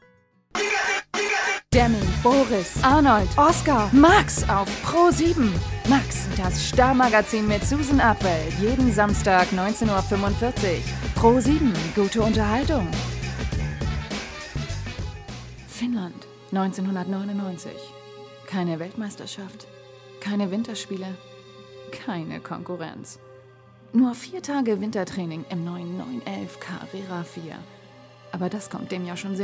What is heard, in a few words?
commercial German